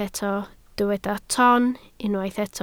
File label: "ton", Welsh